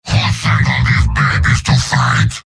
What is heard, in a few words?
heavy taunts; tf2; garrysmod; mvm